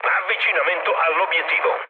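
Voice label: Italian near waypoint mood med, speech; War Thunder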